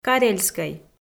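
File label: "карельскӧй", Komi, female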